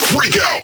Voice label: Vocal Phrase Male "Freak Out"